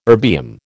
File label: "erbium", American